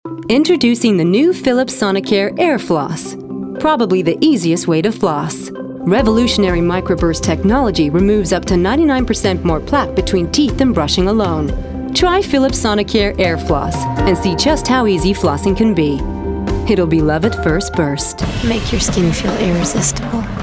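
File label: English US Radio TV Commercial Voice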